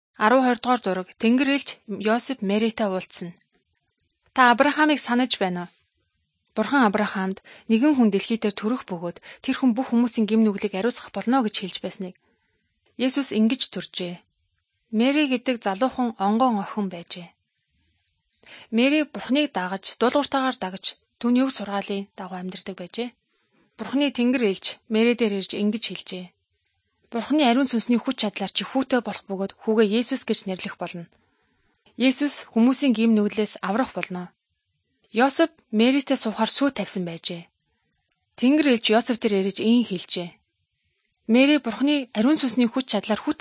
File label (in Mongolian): good news mongolian